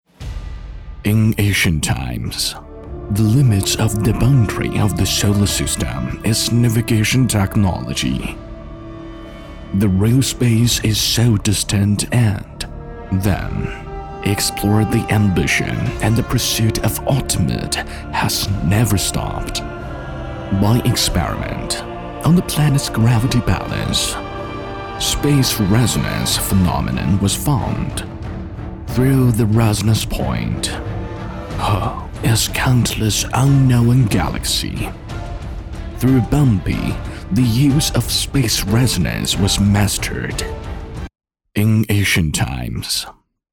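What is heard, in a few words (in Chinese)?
英男 史诗感游戏旁白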